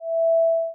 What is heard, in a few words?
speaker front right